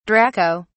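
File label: "draco", British English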